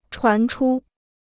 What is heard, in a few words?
"传出", Chinese